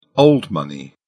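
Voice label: "old money", British English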